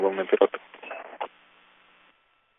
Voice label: Space to Ground; Expedition 39